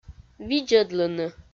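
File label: "видзӧдлыны", Komi, female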